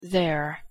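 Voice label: "there", English pronunciation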